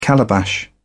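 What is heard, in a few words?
"calabash", English, British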